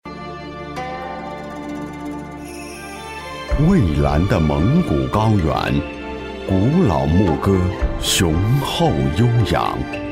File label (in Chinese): 男 专题